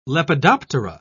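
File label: "lepidoptera", British English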